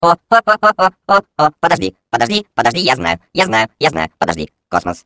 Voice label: Space core babble, ru; Core voice lines